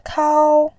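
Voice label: "kao1", Mandarin Chinese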